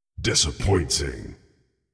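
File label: disappointing, Video Game Announcer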